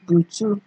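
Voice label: "butu", Nigerian Pidgin, female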